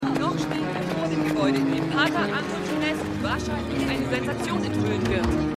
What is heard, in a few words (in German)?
Reporterin; Outer Limits